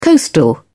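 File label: "coastal", British English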